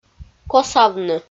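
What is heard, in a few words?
"косавны", Komi, female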